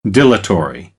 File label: "dilatory", American; AmericanBritish